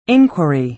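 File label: "inquiry", American English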